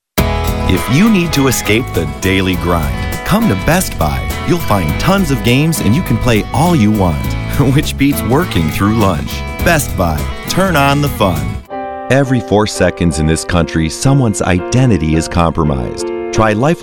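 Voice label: commercial short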